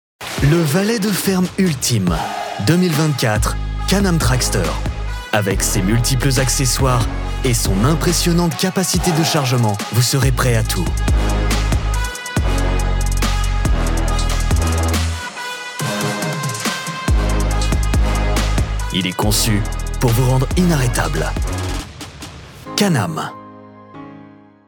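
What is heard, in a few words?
Commercial, French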